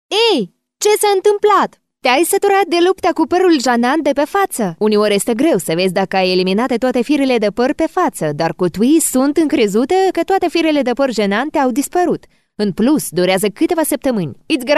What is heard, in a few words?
Moldavian female